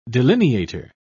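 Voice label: "delineator", British English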